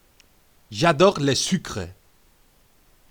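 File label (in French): "j'adore", French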